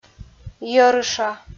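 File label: "йӧрыша" Komi, female; audio dict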